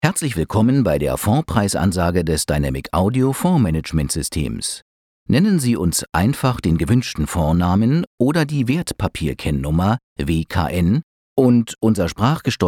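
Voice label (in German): telefon, deutsch männer; sprecher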